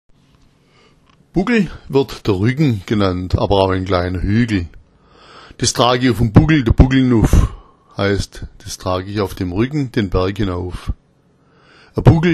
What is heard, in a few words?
buggl, schwaebisch